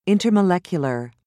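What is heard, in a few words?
"intermolecular", British English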